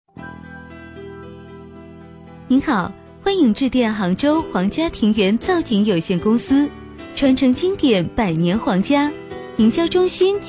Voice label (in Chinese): 女 彩铃 甜美